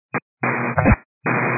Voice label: 122 725MHz, scanner radio